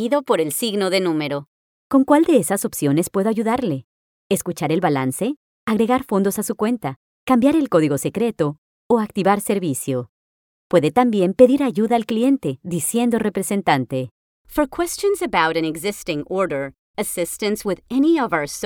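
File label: english interactive voice response; Atriz de dublagem mexicana-americana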